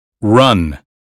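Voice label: "run", American English; 英语音标发音（剑桥版）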